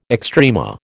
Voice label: "extrema", American